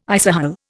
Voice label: "isohel", Indian